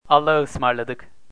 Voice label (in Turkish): "allahaismarladik", turkish, greetings